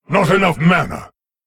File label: Male Lich ERROR NEED MANA